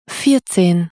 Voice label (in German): "vierzehn" deutsch